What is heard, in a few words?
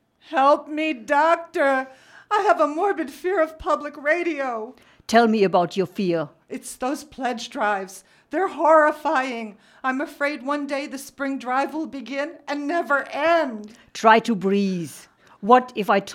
promo; KBBI